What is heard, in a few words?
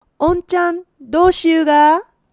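"onchan", Japanese dialect, woman; hogen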